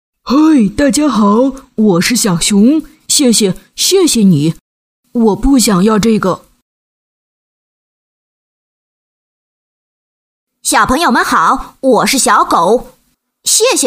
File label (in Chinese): 女 角色 小狗小熊